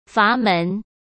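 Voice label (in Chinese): "阀门", Mandarin Chinese; chinese-flashcards; hsk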